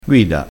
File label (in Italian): "guida", crespinese